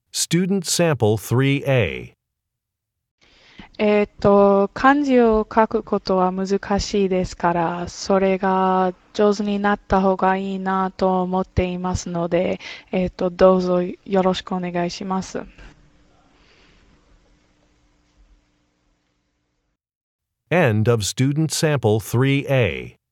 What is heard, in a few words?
japanese conversation